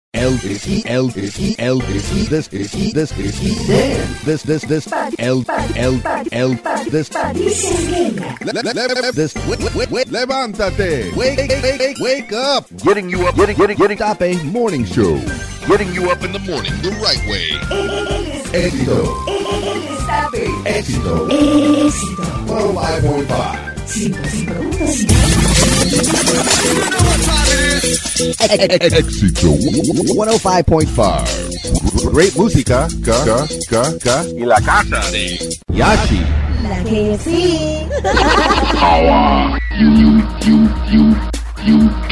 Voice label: Voice Imaging